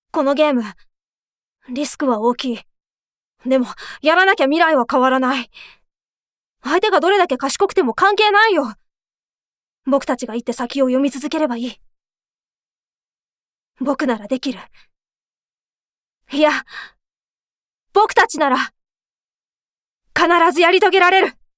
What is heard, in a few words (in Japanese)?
中性